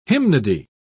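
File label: "hymnody", British English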